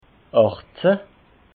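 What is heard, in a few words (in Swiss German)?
"achtzehn", Alsatian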